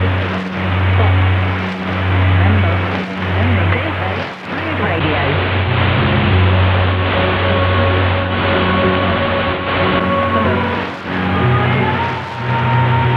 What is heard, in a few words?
603 Smooth